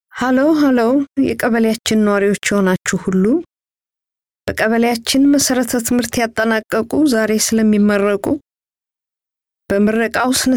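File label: Amharic female; GoLocalise Ltd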